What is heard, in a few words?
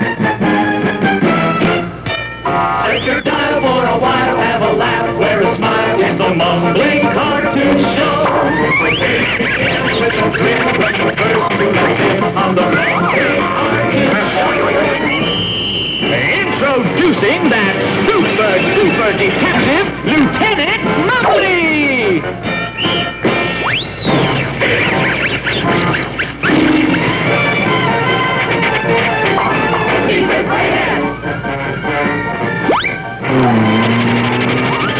mumbly